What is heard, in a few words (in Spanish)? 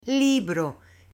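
"libro", Spanish, female; JUGUETES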